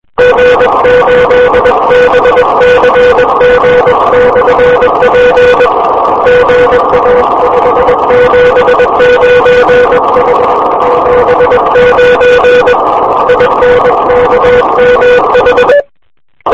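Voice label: amateur radio contact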